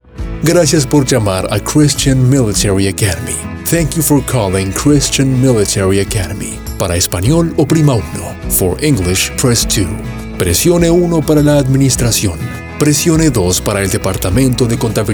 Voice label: male spanish argentine neutral spanish latam and english on hold